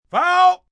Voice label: Sports Foul, umpire, male, baseball; Sports, umpire, coach; Talking Sound Effects

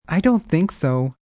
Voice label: Dont Think So, male; Response; Talking

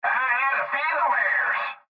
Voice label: Cb Trucker Radio; Radio Voice; INDUSTRY; Blastwave

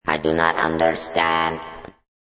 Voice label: Robot No Undstnd, Robot says I Do Not Understand.

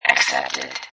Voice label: Robot Voice "Accepted"; Lynne Music

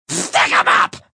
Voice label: Stick Em Up, Male, yelling; VoiceBox

